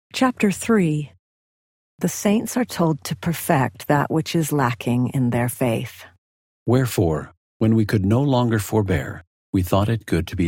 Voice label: male voice; thessalonians 03, eng; the new testament